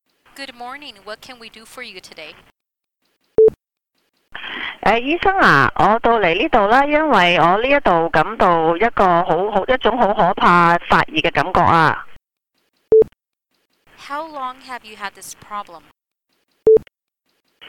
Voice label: Medical Colonoscopy Procedure Cantonese without interpretation